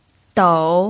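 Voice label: "dou", Mandarin Chinese, third tone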